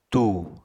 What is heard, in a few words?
"tù", Apatani